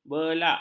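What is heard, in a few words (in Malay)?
"belak", Malay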